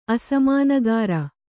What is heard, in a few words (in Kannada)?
"ಅಸಮಾನಗಿರಿ", Kannada